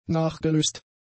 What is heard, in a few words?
"nachgelöst", German; adjectives